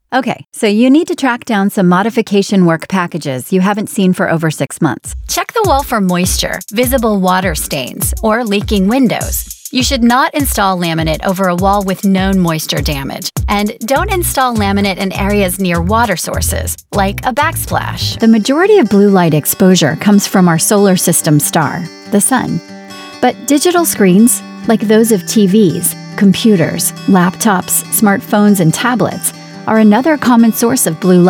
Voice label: Elearning